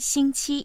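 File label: "xing1qi1", Chinese, female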